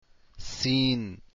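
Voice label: seen, arabic letters; deen path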